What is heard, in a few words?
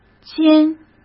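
"尖", Mandarin Chinese; chinese-flashcards; hsk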